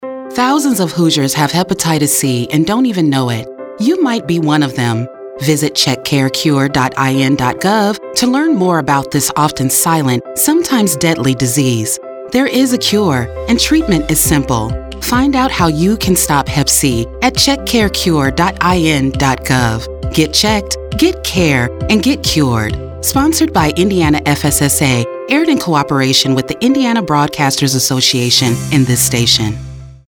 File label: Hep C Radio spot; Indiana FSSA